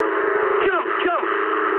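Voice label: English bailout mood med; War Thunder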